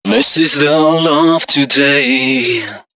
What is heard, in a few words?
male d#130, Dance Ultra Voice hooklines; Mutekki Media; Dance Ultra Voice VOL-1